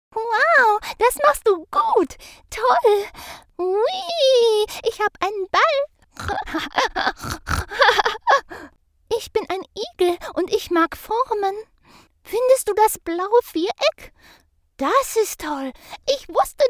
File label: german animation